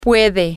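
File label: she can, Spanish, female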